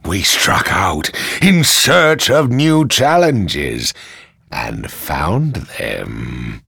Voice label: vo deep elf, we struck out in search; Underworld Ascendant